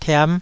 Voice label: "tiam5", taiwanese Syllables